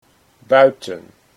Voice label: "buiten", Dutch